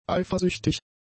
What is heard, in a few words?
"eifersüchtig", german; adjectives